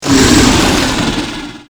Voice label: threat; silent hill